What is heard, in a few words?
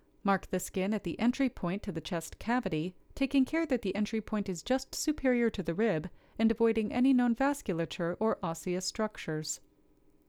voiceover